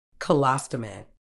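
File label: "colostomy", British English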